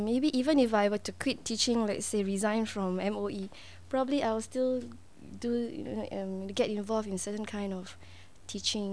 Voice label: Singapore English